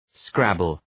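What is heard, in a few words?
"scrabble" English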